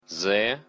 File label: belarusian alphabet z